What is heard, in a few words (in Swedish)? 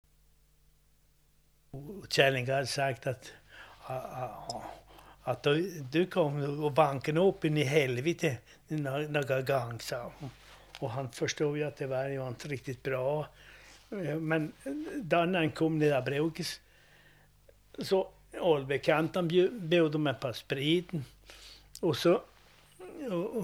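Neder Luleå Norrbotten, dialekter; Folkminnen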